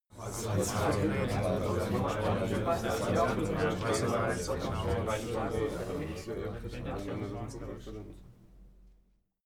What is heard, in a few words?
talk german neutral short